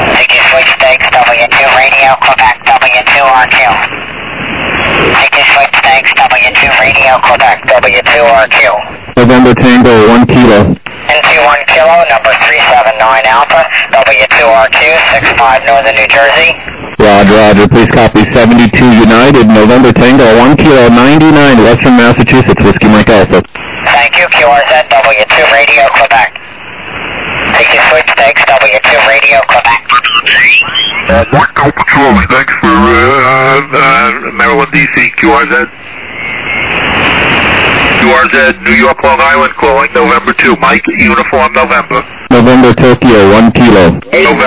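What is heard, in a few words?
lower sideband 7MHz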